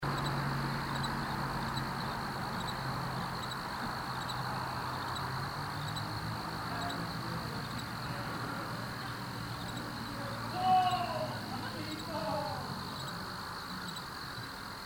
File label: Unexplained Male Voice, cemetery; Case 026 Hebrew-Bryant Cemetery II; Jewish part of cemetery; Yellin